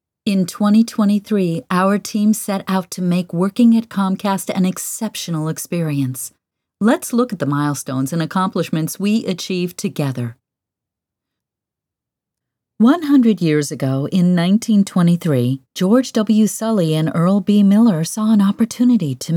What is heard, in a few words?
Corporate